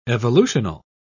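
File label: "evolutional", British English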